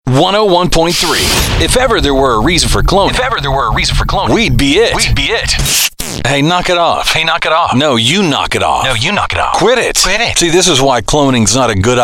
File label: AC Radio; radio's bygone eras ('91-'99)